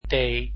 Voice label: "t", dutch alphabet

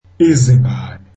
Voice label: zulu children

